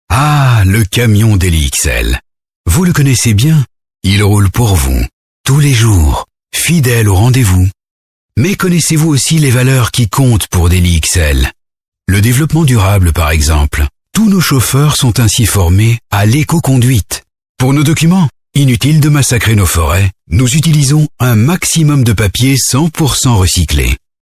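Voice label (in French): Belgian French, male, narration; voice demos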